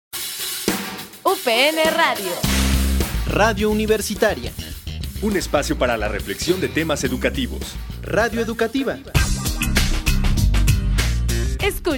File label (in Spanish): radio universitaria; upn radio 2